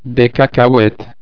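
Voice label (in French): "cacahuette", French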